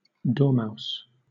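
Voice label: "dormouse", British English; pronunciations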